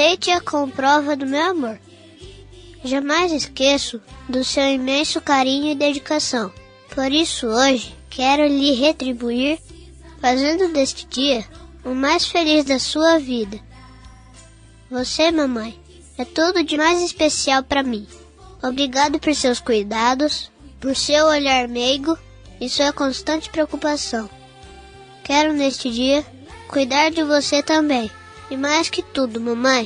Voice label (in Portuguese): voz infantil mae masc, Aniversário Voz Infantil – Mãe – Voz Masculina